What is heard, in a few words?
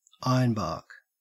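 "ironbark", Australian English; pronunciations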